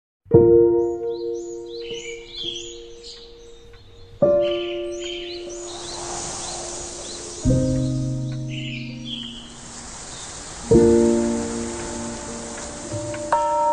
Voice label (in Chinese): 女 地产; 建发; 浙里